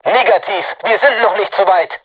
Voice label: voice message art reloading, crew tanks german

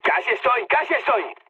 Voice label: near waypoint, mood high, speech; War Thunder

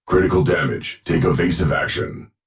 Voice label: warning take evasive action, Titan, EN, Voice; Titanfall 2; Legion

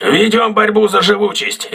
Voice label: voice message repairing, crew ships russian

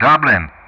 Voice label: "Dublin", Speech ENG; Ka-50; Callsign

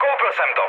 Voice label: aircraft destroyed, speech cze, mood low

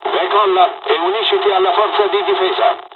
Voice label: jpn takeoff, dialogs italian; midway; War Thunder

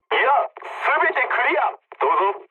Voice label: jp chat, speech jp

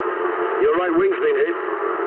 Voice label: eng right wing mood low, speech eng; War Thunder

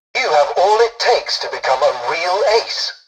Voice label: tutorial dialogue, english; War Thunder

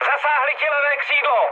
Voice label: left wing, speech cze, mood med; War Thunder

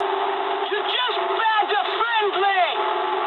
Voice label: eng player killed ally mood high, speech eng

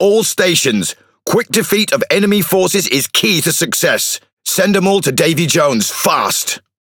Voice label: Pirate triple kill; World Of Warships

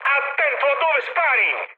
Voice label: player friendly fire mood high, speech it

